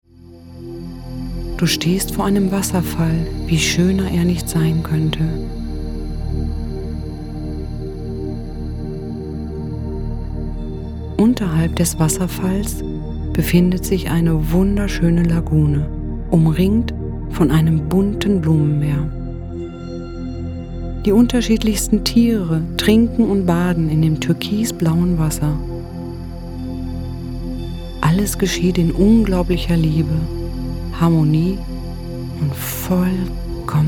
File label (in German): Entspannungsmeditation